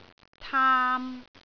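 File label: "taam3", Cantonese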